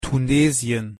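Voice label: pronunciation German "tunesien"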